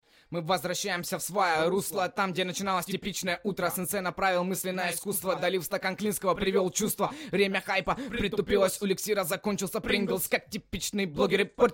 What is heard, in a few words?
Russian Acapella Track, grime, 140, Bm